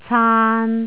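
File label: "caan3", Cantonese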